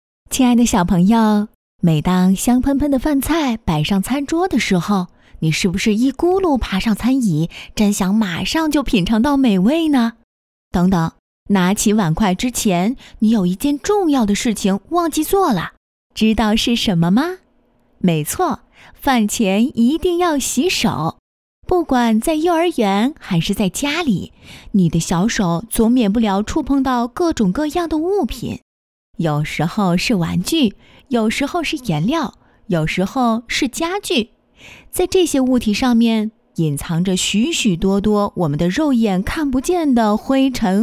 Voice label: 温柔讲述; 宝宝会吃饭

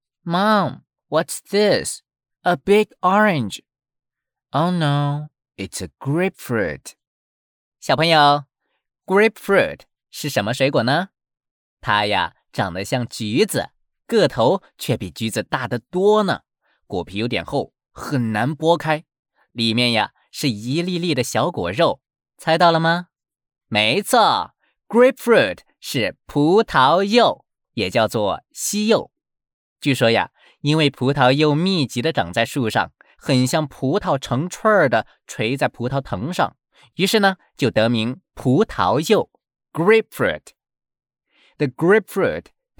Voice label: 少儿 英语

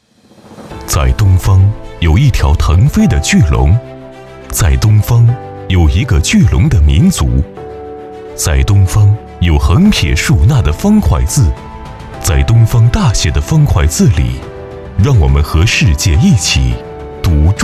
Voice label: 大气宣传片; 在东方

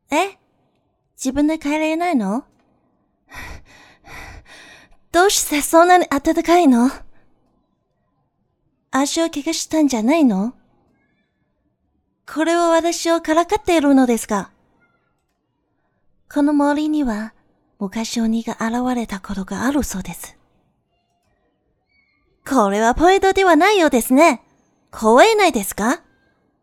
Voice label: 十六七岁少女台词试音

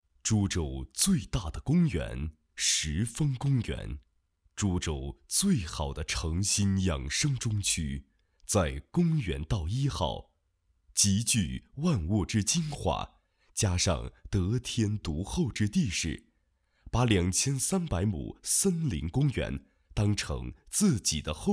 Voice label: 样音楼盘宣传片

